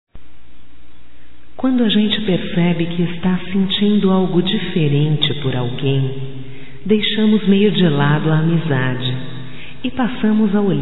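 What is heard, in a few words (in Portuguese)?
voz, Aniversário amigo (Romântico), female, Telemensagens; Telemensagens 2017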